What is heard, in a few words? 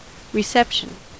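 "reception", American English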